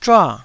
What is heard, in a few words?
"zhua4", Taiwanese syllable